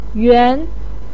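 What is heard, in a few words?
"yuan", Mandarin pinyin, second tone; 饲; sì yǎng yuán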